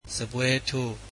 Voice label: Waiter, burmese